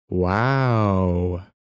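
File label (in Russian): мужчина протяжно; Вау звук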